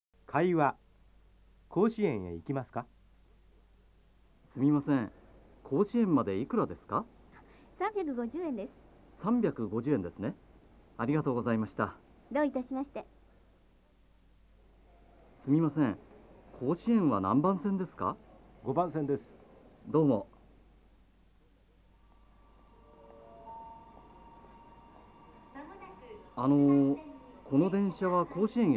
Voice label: nihongo dialog, jap